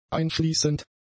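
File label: "einschließend", German; adjectives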